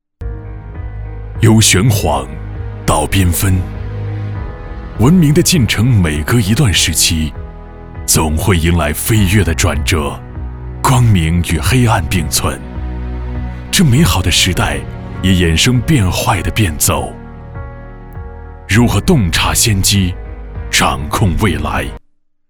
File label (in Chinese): 男国 样音; 智进御远